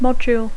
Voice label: "module", English; Dacco